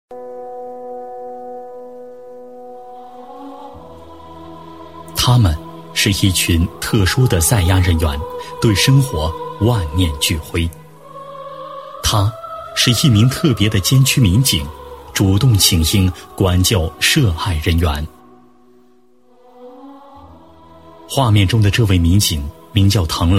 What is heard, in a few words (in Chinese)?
男 人物, 国语男声配音, 大气浑厚; 监区民警唐某